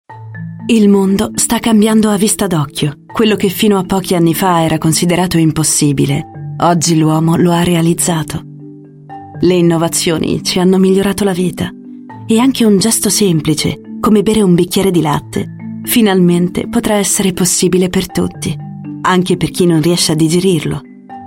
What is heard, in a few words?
Italian